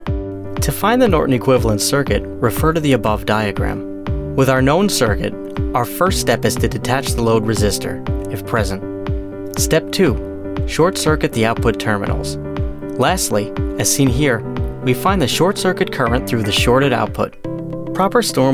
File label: 20s Male Narration